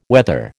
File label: "whether", American